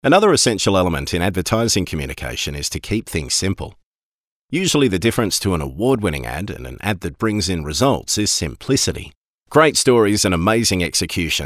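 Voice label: male narration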